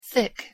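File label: "thick", English pronunciation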